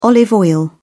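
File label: "olive oil", British English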